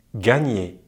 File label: "gagner", French; easypronunciation